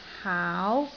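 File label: "haau2", Cantonese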